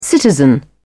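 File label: "citizen", British English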